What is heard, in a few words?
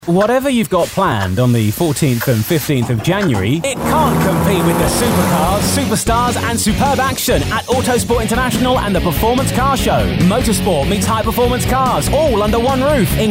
Chilled to Upbeat TV Commercial